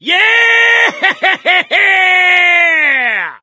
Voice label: battle cry, survivor voice; source engine; left 4 dead 2